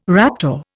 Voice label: "raptor", Indian

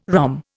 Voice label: "rhomb", Indian

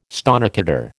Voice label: "stonecutter", American

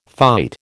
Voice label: "fight", American

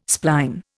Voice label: "spline", Indian

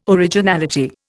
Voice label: "originality", Indian

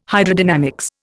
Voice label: "Hydrodynamics", Indian

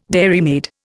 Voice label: "dairymaid", Indian

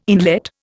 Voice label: "inlet", Indian

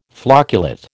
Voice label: "flocculate", American

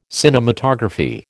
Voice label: "cinematography", American

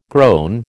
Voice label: "groan", American

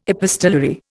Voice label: "epistolary", Indian

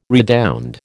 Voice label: "redound", American

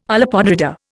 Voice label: "olla podrida", Indian

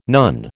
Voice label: "nun", American

